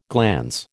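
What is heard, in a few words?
"glans", American English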